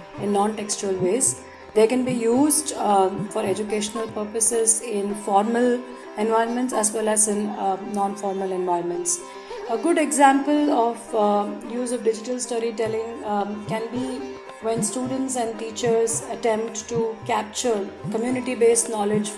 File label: Audio file with background music amplified; reduced